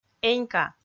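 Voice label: "энька", Komi, female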